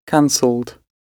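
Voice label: "cancelled", British English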